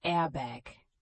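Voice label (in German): "Airbag", German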